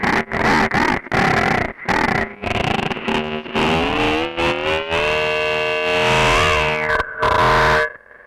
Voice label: EMS voice; Syntec; Wall of Sounds; TECHNO-BOX

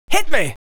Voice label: "Hit Me", vocal phrases; Ultimate Vocals

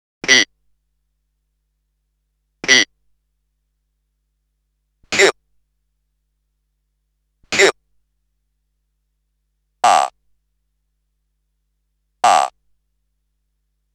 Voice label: Computer Speech; Bizarre Planet

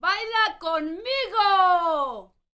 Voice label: MEX FEMALE, World Voices

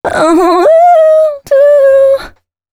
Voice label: female f105, adlips; Mutekki Media; Dance Ultra Voice VOL-1

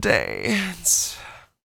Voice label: DANCE, R&B Dance, FEM. SPOKEN; Spectrasonics Vocal Planet

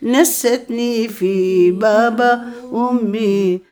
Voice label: OLD WOMAN, Arabian Traditions

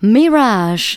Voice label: "mirage", spoken; Techno Trance Essentials

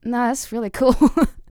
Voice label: FEM SPOKEN, R&B Dance

